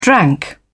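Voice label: "drank", British English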